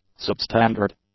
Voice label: "substandard", English; adjectives